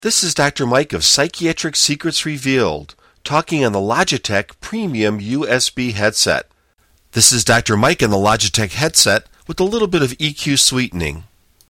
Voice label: Logi Head Usb